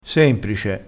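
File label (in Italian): "semprice", dialettale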